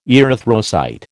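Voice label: "erythrocyte", American English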